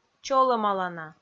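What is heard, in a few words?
"чолӧмалана", Komi, female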